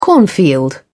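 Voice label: "cornfield", British English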